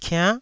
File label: "kiann9", taiwanese syllables